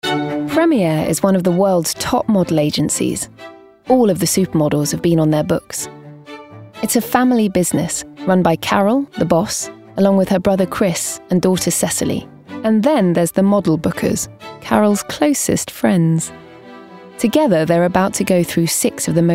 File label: Documentary; Models